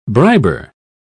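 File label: "briber", English, British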